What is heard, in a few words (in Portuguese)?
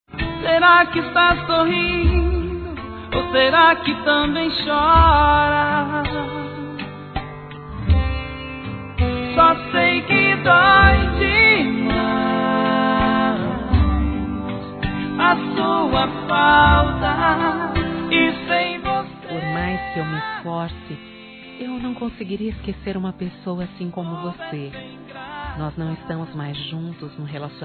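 ROMANTICA EX-NAMORADO, Feminino; Telemensagens; Românticas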